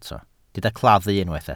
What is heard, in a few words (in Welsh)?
"claddu", Welsh